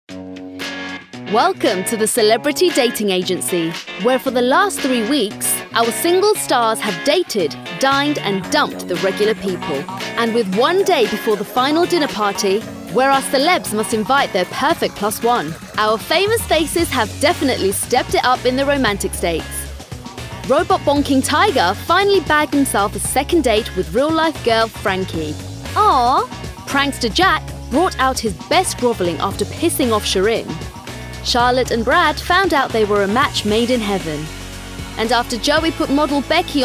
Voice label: Narration